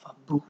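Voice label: "fabu", Nigerian Pidgin, male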